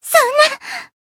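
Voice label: damaged, Japanese; tsukiha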